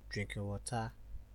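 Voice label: "drinking water", Nigerian Pidgin, male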